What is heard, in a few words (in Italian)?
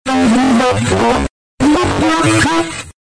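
"dai guida", Italian; Dai guida tu, m'inginocchio!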